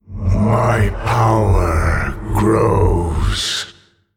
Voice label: Male Old God Emote